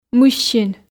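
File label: "мыссин", Komi, female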